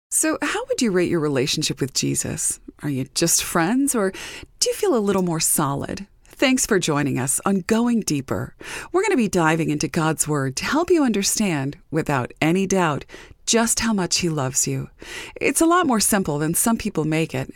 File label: Woman Voice